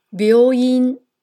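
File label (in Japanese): "病院", Japanese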